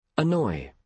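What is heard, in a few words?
"annoy", British English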